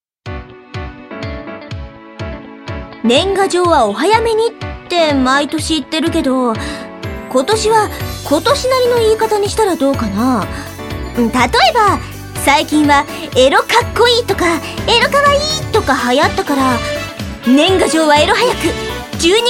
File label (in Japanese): ナレーション